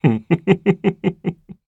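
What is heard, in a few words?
story, Japanese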